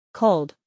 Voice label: "cold", English